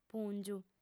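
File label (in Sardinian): "pùngiu", female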